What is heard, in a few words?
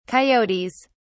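"coyotes", British English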